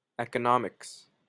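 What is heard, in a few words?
"economics", Canadian English; pronunciation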